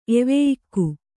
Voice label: "ಎವೆಯಿಕ್ಕು", Kannada

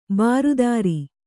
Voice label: "ಬಿರಾದರ", Kannada

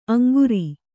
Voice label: "ಅಂಗರ", Kannada

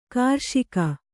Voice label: "ಕೃಷಿಗೈ", kannada

